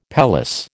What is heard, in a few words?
"pelisse", American